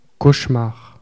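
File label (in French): "cauchemar" French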